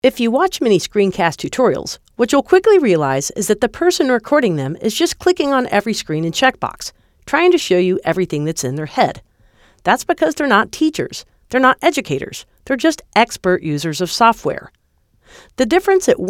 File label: Voiceover